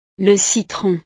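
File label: "le citron", French; verduras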